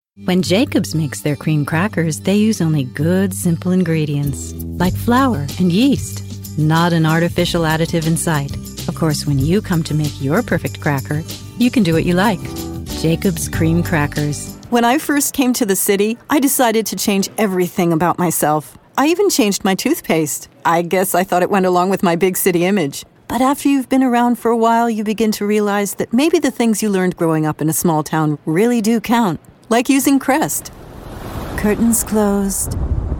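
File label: US English Commercial; voice demos; GoLocalise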